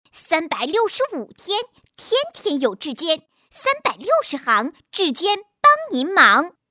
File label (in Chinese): 动画 童声 男童声 干音